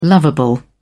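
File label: "lovable", British English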